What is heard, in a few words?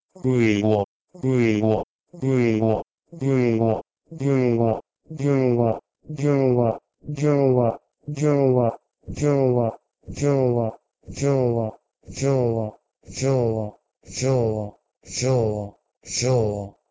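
Proto-Indo-European gwieh3uo to Greek zooo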